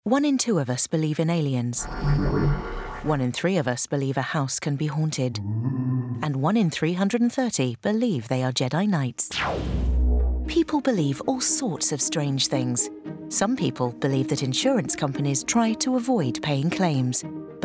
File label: Female VO; Zurich; Campaign 2018